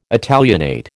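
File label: "Italianate", American English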